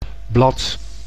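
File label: "blad", Dutch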